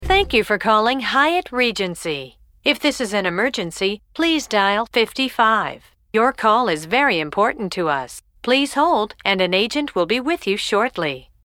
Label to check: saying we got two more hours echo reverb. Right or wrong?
Wrong